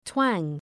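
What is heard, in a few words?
"twang", English; {twæŋ}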